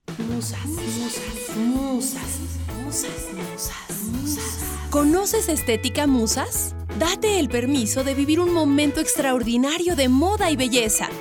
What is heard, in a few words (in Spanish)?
spot comercial; spots publicitarios; estetica musas